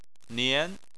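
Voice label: "nian", Chinese, second tone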